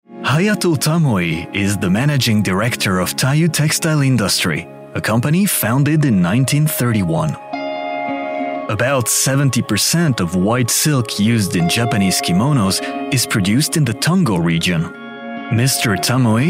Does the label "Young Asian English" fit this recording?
No